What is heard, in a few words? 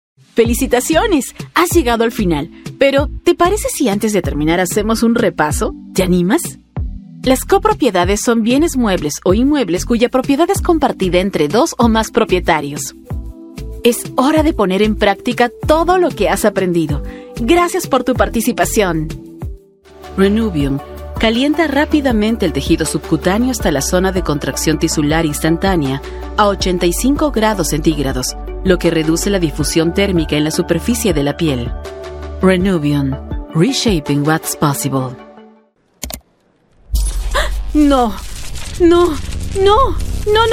spanish latin american e-learning